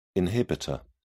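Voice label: "inhibitor", British English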